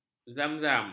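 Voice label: "zamzam", Malay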